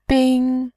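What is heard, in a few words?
"bin", Mandarin Chinese, tone 1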